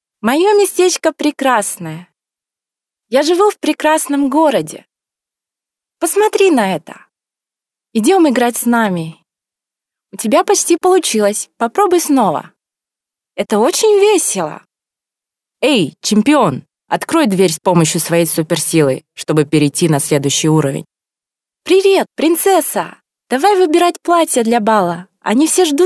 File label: Female Russian Toys